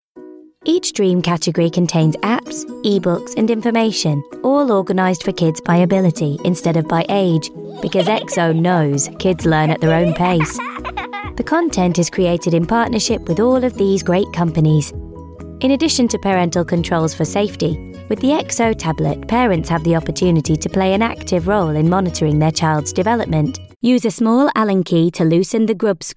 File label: Corporate